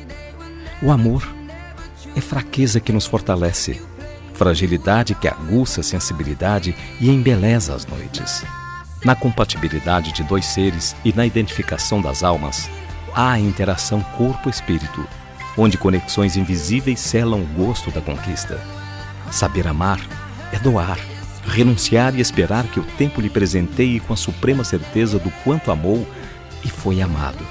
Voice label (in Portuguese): aniversario PARA NOIVA, telemensagem aniversario noiva noivo